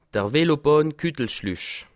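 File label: "velobahn", Alsatian, Bas Rhin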